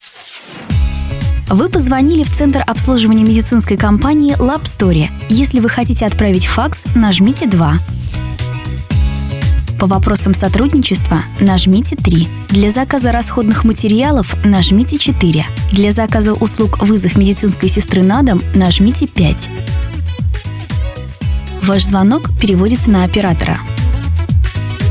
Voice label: IVR